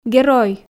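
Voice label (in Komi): "герой", Komi-Zyrian, female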